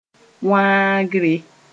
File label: "wãagre", moore; breaking a thing